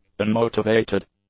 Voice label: "unmotivated", English; adjectives